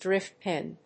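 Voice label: "drift pin", English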